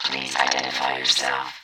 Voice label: Robot voice, please identify yourself